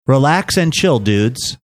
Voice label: relax and chill dudes